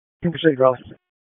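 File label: Raleigh Police Northeast District Dispatch; wake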